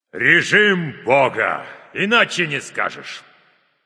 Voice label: kill streak, russian; caster

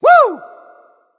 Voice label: grunt wooo; smite; apollo

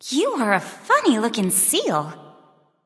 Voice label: taunt directed; fenrir; smite; medusa

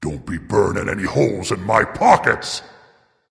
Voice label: taunt directed dragon; fafnir; sol

